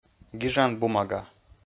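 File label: "гижан бумага", Komi, male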